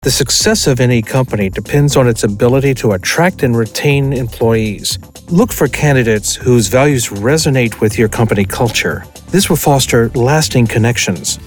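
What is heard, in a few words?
elearning, Male Voice Actor; ceo company candidates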